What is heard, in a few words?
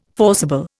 "forcible", Indian